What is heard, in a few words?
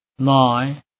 9, Bengali